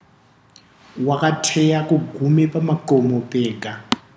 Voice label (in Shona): 6, Ndau